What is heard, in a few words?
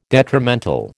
"detrimental", American